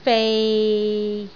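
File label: "fei6", Cantonese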